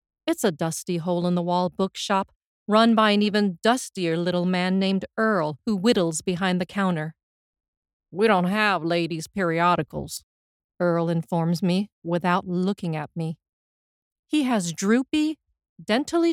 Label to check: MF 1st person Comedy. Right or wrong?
Right